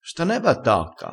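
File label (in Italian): "attacca", dialettale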